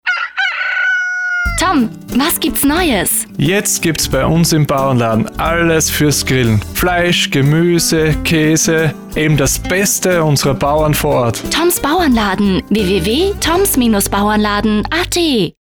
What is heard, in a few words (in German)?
spot; toms bauernladen